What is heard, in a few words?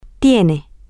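you have formal, Spanish, female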